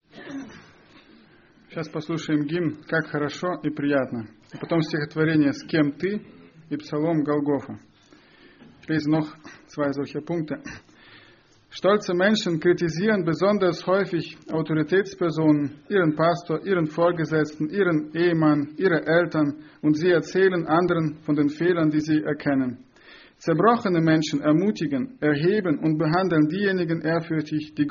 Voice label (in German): ansage; Братское (Германия, 04-25)